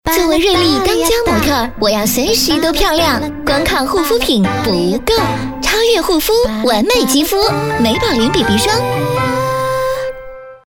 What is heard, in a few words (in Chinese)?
广告 化妆品 BB霜 年轻, 女国; 美宝莲BB霜